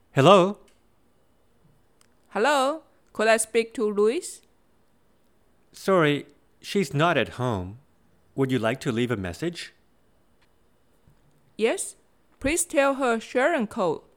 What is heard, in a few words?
basic english dialogs telephone